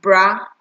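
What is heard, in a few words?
"brah" Nigerian Pidgin, female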